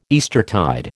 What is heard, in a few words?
"Eastertide", American